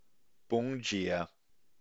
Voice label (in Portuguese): "Bom dia", brazilian portuguese